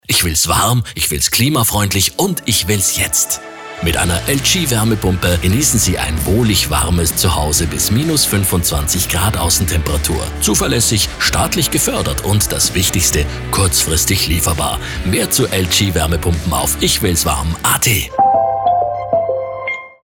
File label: Commercial, Austrian voice talent, German; LG